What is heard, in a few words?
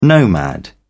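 "nomad", British English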